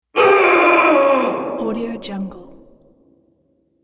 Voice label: urgh expression of annoyance or displeasure

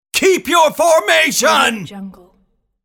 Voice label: military voice keep your formation

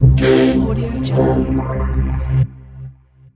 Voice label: game over dark voice

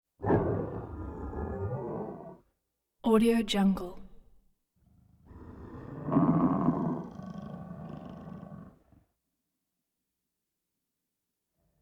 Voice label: robot voice

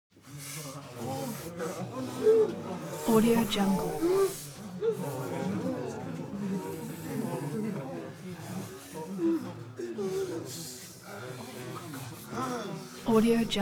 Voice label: human crowd walla anxious; smartsoundfx

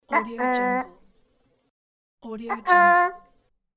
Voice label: cartoon uh oh

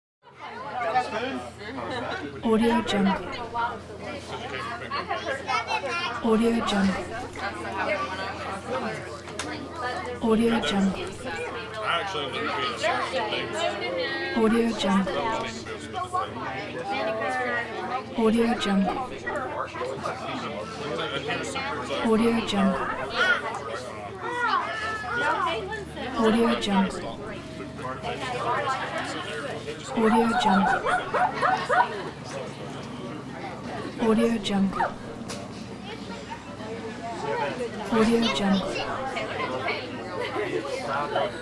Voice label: outdoor small group chatter

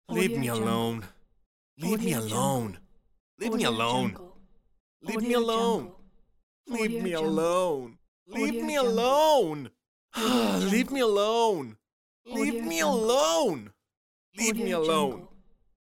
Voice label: male saying leave me alone

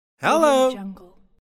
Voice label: male voice "hello"

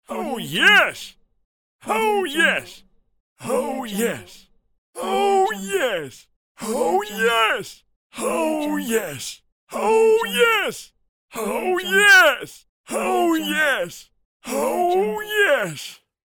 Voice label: old man excited oh yes

